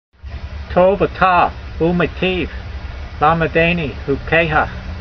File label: voice, hebrew language; Psalm 119:68; tov-a'ta oomayteev lamedaynee hukeyha